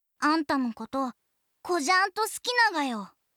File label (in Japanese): 好きです 高知; 響